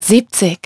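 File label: "seventy", English; iOS 4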